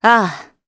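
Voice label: Vox Japanese; Hilda; kingsraid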